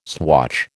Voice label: "swatch", American

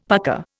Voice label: "pucker", Indian; Dictionary

